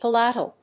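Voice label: "palatal", English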